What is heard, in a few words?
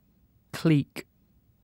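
"clique", British English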